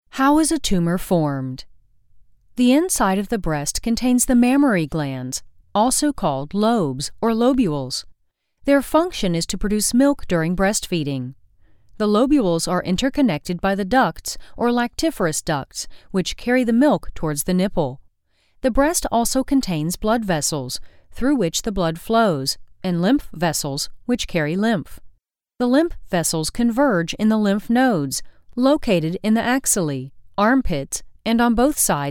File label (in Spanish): narracion ingles USA